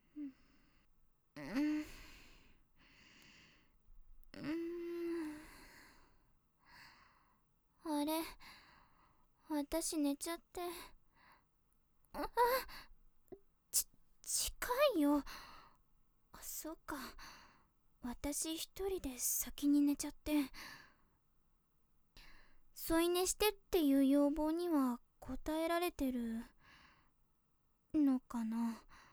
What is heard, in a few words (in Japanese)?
添い寝, ASMR